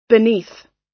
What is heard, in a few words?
"beneath" US